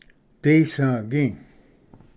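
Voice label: "disvgi", Cherokee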